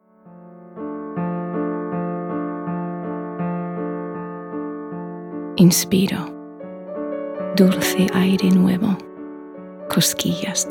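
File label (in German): Spanish, Kommentar Sanft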